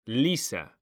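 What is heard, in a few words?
"lisa", Spanish, male; mekanta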